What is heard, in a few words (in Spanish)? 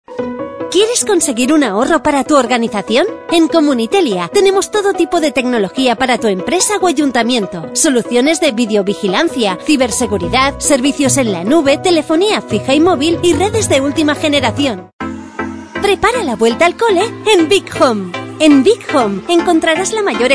MUJER